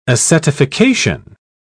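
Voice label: "acetification", British English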